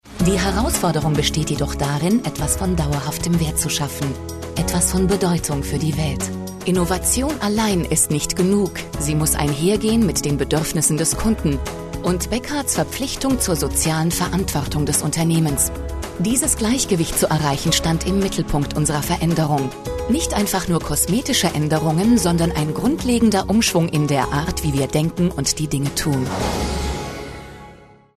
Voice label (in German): German Documentery